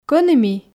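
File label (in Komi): Komi, кӧні ми, female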